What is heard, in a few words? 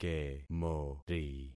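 "kemori", concatenated syllables; Affixing experiment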